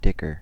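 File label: "dicker", US English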